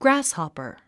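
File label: "grasshopper", English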